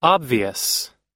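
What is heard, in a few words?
"obvious", American English; obvious /ɑ́bviəs; (疑問の余地がないほど)明らかな、明白な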